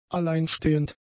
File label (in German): "alleinstehend", German; adjectives